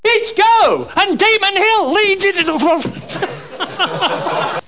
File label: advert